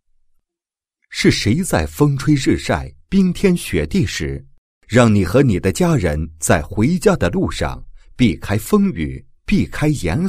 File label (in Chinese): 男 产品介绍 宣传介绍; 晶钻镀晶蜡